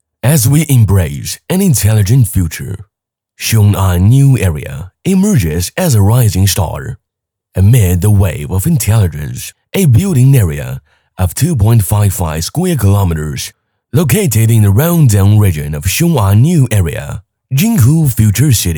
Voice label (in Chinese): 男 英文; 金湖未来城英文